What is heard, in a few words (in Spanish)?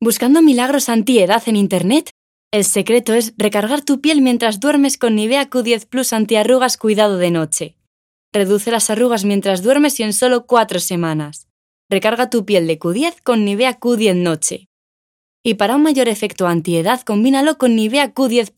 Spot